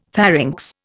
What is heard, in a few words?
"pharynx", Indian; Dictionary